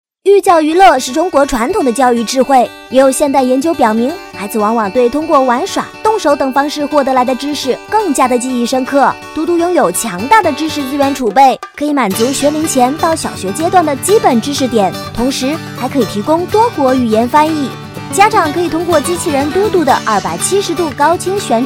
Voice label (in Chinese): 多媒体 产品演示 机器人; 嘟嘟机器人